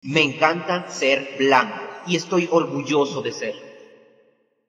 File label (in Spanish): me encanta ser blanco reverb